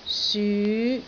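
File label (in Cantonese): "syu5", Cantonese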